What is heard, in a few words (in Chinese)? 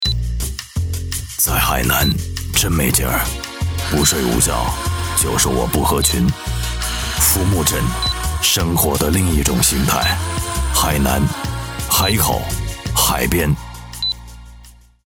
男 浮木阵有点姜文 张涵予的音色; 国语男声配音